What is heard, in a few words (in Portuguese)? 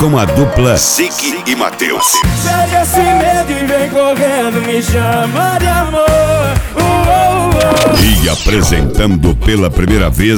CHAMADA NOITE DO BAR